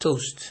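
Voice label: "toast", Nigerian Pidgin, male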